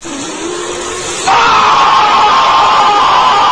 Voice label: lose combo, announcer; hl1mp; XDM